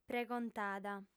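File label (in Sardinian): "pregontada", female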